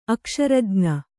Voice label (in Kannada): "ಅಕ್ಷರಜ್ಞ", Kannada